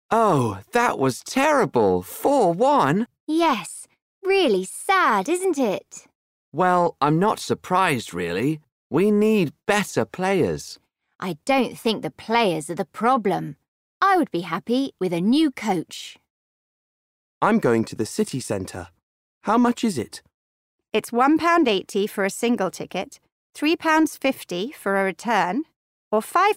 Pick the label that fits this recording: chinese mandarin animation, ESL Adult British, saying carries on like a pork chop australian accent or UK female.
ESL Adult British